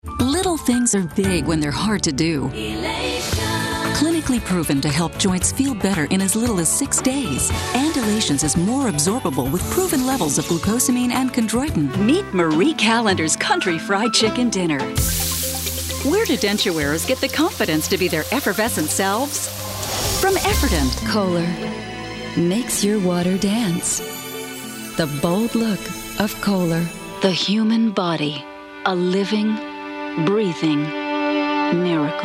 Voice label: Commercial